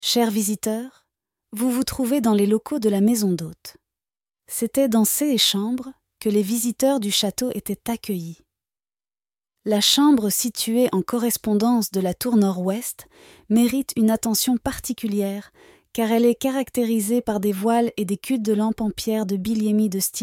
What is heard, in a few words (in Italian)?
francese; foresteria